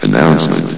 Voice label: "announcement", vox; Source Engine; bshift